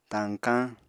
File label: "tañkañ", apatani